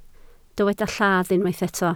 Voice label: "lladd", Welsh

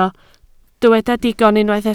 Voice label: "digon", Welsh

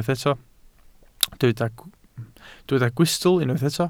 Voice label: "gwystl", Welsh

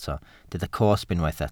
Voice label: "cosb", welsh

